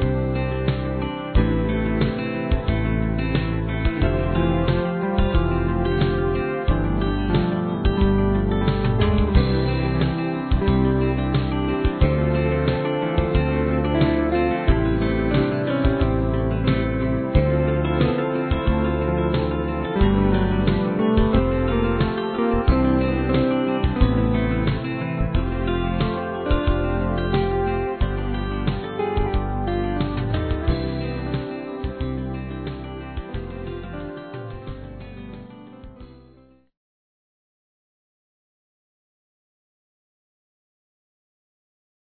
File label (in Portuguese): Aniversário Chefe Masculino; Empresarial; Telemensagens 2017